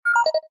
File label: announce, ships computer; CosmicRage